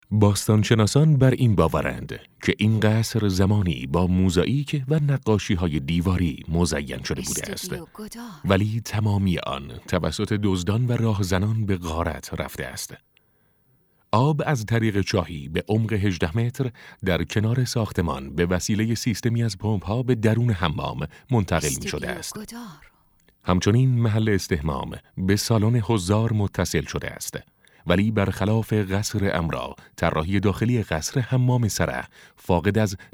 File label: documentary